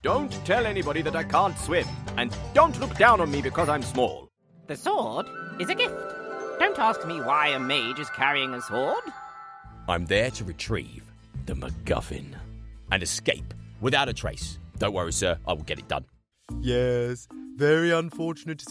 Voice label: Animation